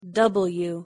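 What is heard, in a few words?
"w", English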